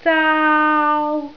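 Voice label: "zaau1", Cantonese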